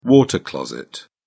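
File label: "water closet", British English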